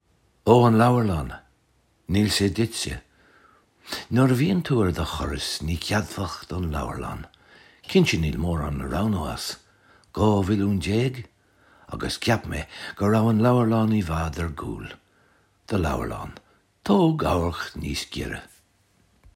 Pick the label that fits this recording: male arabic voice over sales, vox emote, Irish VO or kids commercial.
Irish VO